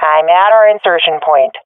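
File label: at our spawn, swat female; Counter Strike Model Pack; CS:GO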